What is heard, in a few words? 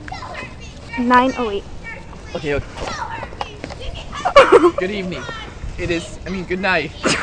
Unknown Voice not manipulated